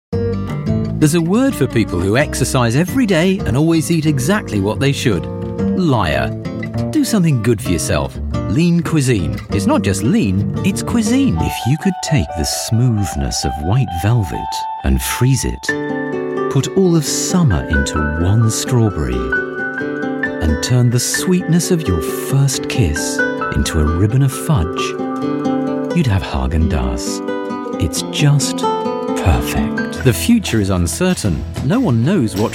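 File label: commercials, female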